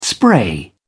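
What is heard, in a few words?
"spray", British English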